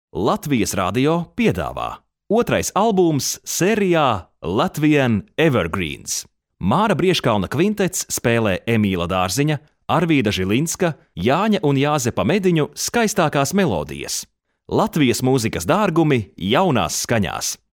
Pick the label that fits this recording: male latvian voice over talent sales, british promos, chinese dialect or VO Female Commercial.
male latvian voice over talent sales